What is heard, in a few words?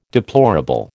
"deplorable", American